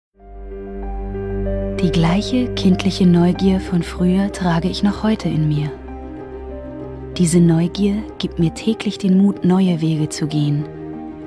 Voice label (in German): deutsch; kspg